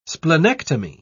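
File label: "splenectomy", British English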